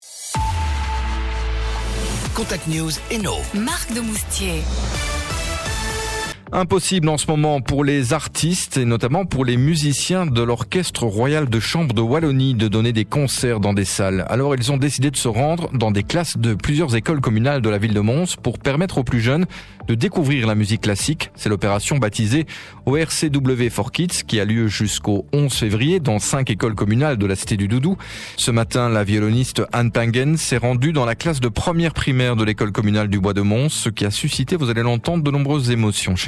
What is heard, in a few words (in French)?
Infos regionales reportage; RADIO CONTACT